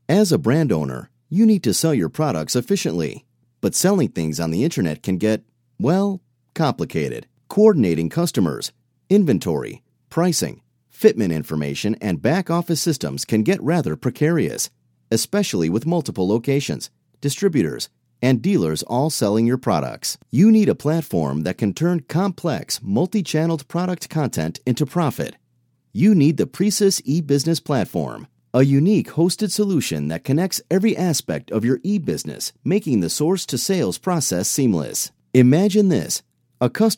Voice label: english american corporate videos